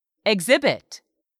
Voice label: "exhibit", English; 3000 words